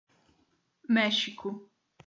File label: "méxico", portuguese